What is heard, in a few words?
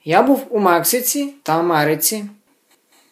dialogue, Ukrainian